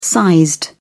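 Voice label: "sized", British English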